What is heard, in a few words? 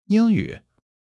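"Ying1 yu3", Chinese; 基础汉语40课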